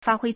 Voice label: "fāhuī", Chinese; 发挥 (發揮); verb; to display; to exhibit; to bring out